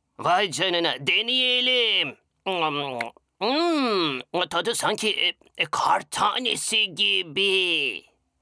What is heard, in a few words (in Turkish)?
cocuk